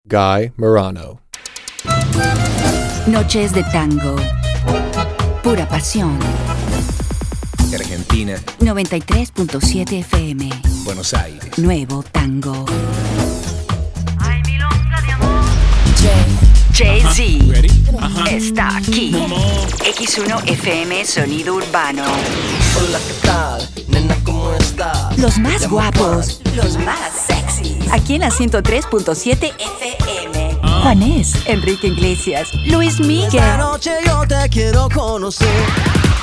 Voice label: Radio Imaging